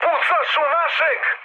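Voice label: Serbian player friendly fire mood high; War Thunder; speech srb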